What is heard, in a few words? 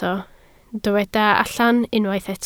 "allan", Welsh